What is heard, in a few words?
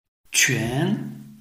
"quan2", Chinese